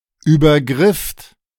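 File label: "übergrifft", German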